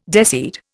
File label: "deseed", Indian